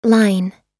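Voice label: "line", English; oxford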